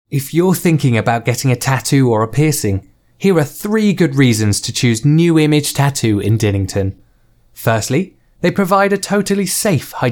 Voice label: Commercial

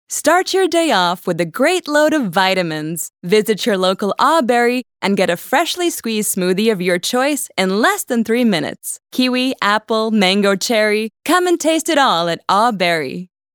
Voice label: Ad

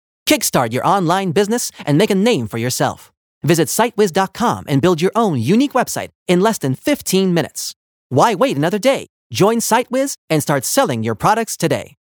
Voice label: ENG Reklama

